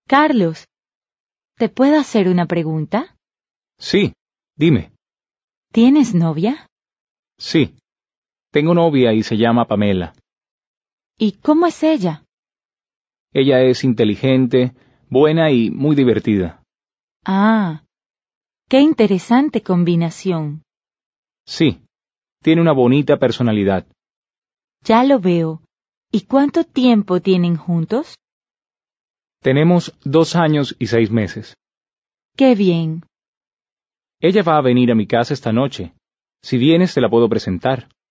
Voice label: Dialogue, Spanish